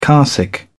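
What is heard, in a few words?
"carsick", English, British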